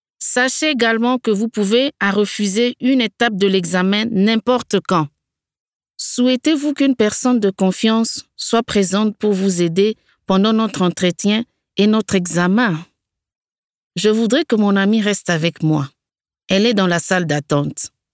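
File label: French African female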